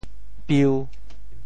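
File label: "piu1", Teochew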